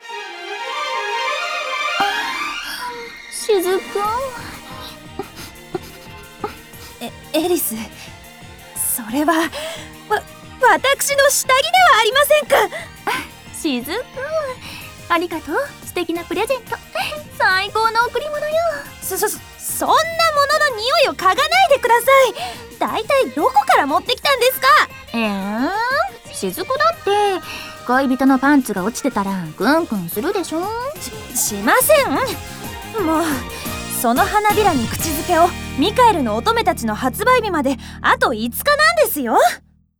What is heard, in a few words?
Voice Drama